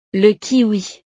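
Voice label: "le kiwi", French; frutas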